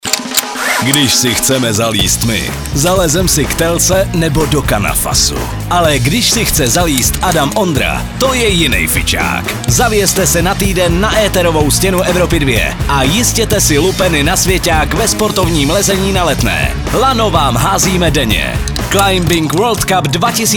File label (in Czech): promo sportovni lezeni; Vyhrávej vstupenky na SVĚTOVÝ POHÁR VE SPORTOVNÍM LEZENÍ